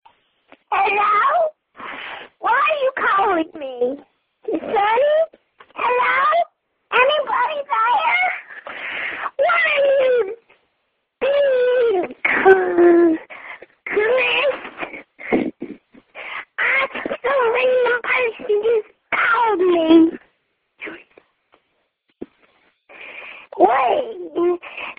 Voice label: voicemail, answering machine incoming message tape